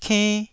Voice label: "kenn", Taiwanese